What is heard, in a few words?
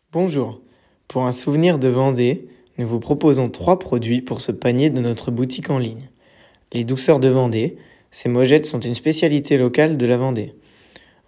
Pitch commercial